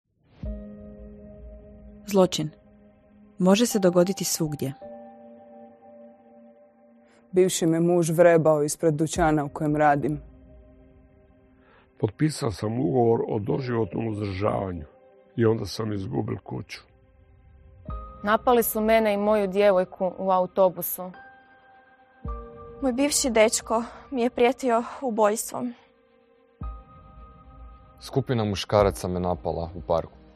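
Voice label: Croatian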